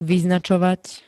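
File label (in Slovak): "vyznačovať", Slovak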